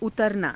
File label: "utaranaa", hindi